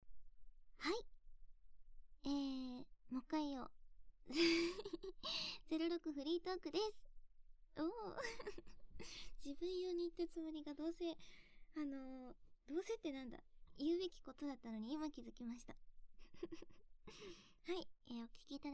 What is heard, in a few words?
FREE TALK, ASMR